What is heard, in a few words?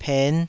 "pen5", taiwanese